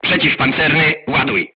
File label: voice message load AP, crew tanks polish